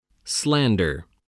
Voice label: "slander", voice, spelling